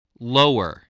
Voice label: "lower", voice

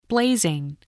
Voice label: "blazing", spelling

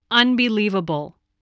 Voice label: "unbelievable", English spelling voice

